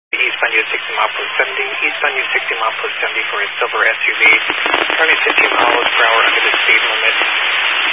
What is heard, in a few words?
Highway Patrol, Phoenix Metro West Area, scanner